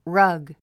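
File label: "rug", English word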